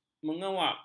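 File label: "mengewap", Malay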